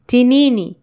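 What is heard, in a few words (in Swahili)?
"Tinini", Swahili, Kenya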